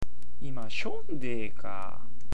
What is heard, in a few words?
"deeka", awaji-ben